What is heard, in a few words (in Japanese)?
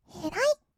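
"えらいっ", 日本語, kawaii voice; ASMR; 色々なワンショット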